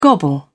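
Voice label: "gobble", British English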